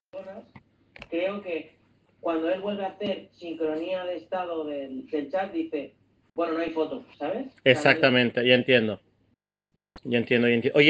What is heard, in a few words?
Voice message